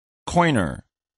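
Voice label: "coiner", English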